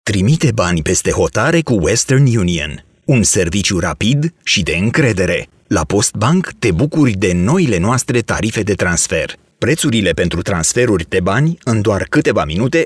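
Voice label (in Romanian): Romanian male Reclama TV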